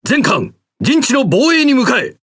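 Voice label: Speech Quick Commands Ally Base, Japanese; World Of Warships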